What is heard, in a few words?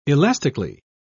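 "elastically", British English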